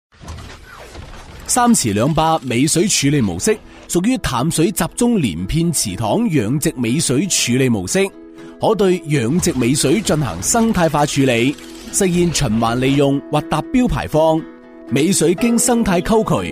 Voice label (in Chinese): 男 粤语配音宣传片; 男210-大气厚重 大气激昂; 农业局尾水处理技术